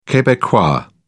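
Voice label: "quebecois", British English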